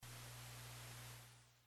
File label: guided meditation